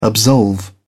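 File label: "absolve", British English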